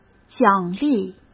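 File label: "奖励", Mandarin Chinese; chinese flashcards; hsk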